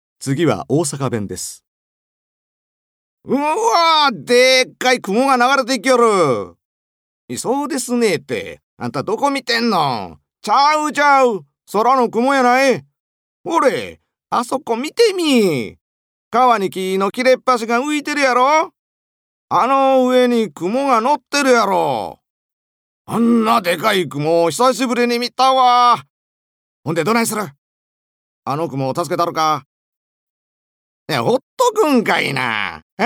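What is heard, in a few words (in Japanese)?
方言, 大阪弁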